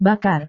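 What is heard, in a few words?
"bakar", Indonesian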